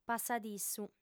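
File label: "passadissu", Sardinian, female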